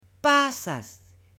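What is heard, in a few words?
"pasas", Spanish, female; AppLenguaje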